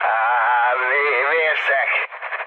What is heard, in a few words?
damaged pilot, mood low, speech hun; War Thunder